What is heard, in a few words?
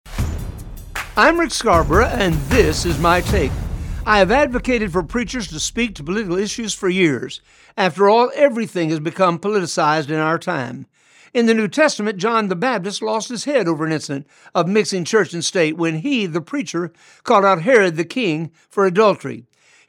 Radio Spot; Mixing Church and State